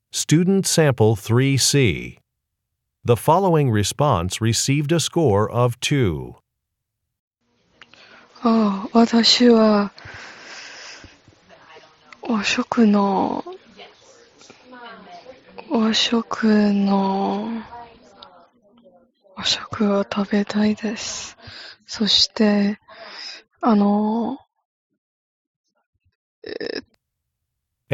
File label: Japanese conversation